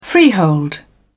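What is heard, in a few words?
"freehold", British English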